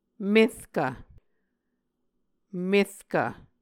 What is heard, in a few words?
"merkv", Muscogee